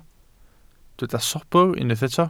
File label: "sobr", Welsh